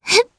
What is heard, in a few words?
Vox Casting jp; Frey; kingsraid